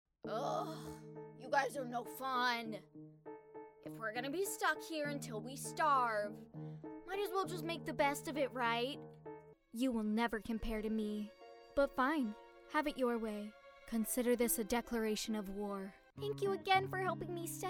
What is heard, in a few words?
CHARACTER